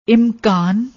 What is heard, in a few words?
"enkaan", Punjabi; ਇਮਕਾਨ